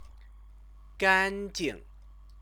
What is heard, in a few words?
"ganjing", Chinese; 干 净; clean; resultative word